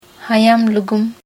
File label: whisper, Ho